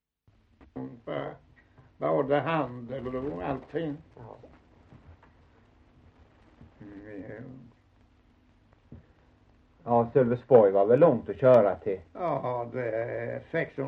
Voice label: Osby, dialekter; Folkminnen; Svenska sagor

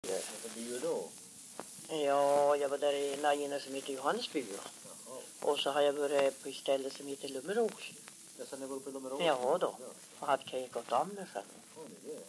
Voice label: dialekter; Folkminnen; Svenska sagor

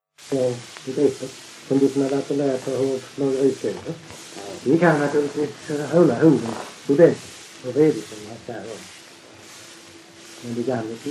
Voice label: Öljehult BLEK, dialekter; Folkminnen; Svenska sagor

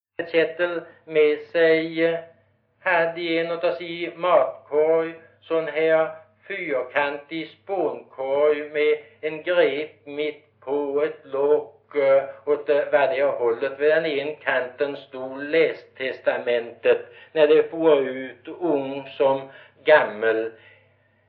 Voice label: Gårdby ÖL, dialekter; Folkminnen; Svenska sagor

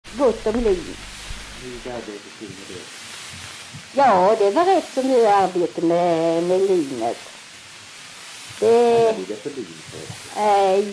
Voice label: dialekter; Folkminnen; Svenska sagor

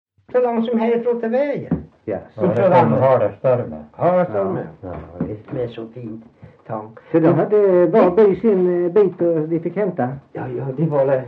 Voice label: Värö HALL, dialekter; Folkminnen; Svenska sagor

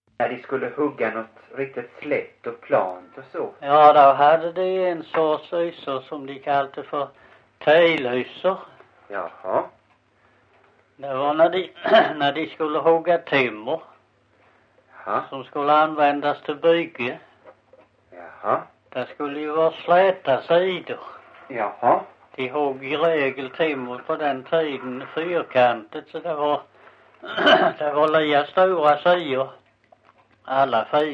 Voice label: Visseltofta SK, dialekter; Folkminnen; Svenska sagor

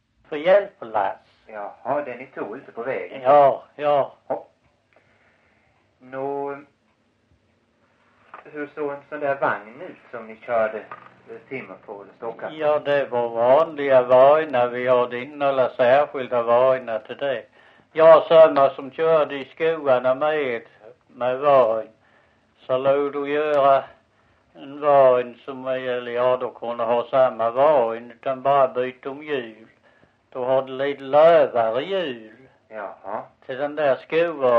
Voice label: Visseltofta SK, dialekter

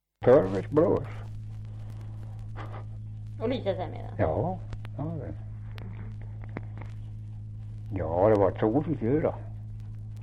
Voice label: Kopparberg, dialekter; Folkminnen

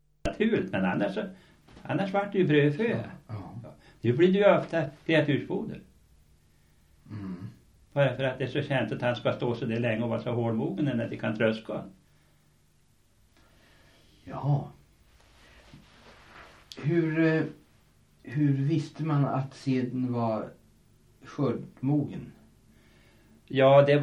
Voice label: Viby Hardemo, dialekter; Folkminnen; Svenska sagor

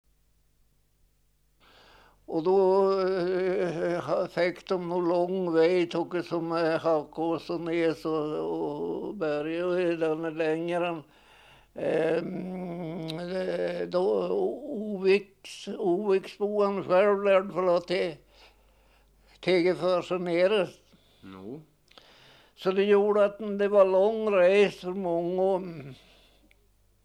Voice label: Näskott, dialekter; Folkminnen; Svenska sagor

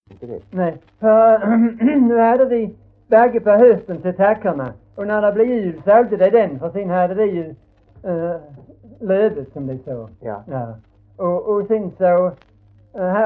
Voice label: Hörja, dialekter; Folkminnen; Svenska sagor

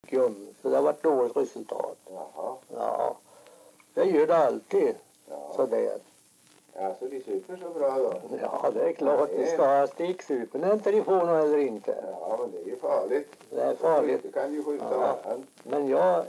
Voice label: dialekter; Folkminnen; Svenska sagor

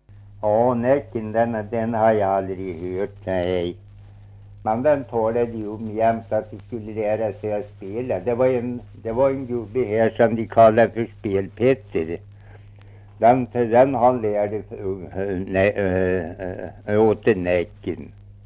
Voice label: Svenska sagor, dialekter; Folkminnen

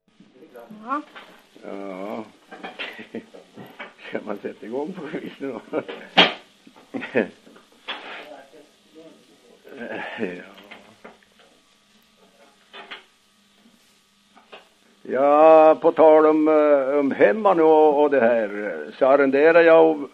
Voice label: dialekter; Folkminnen; Svenska sagor

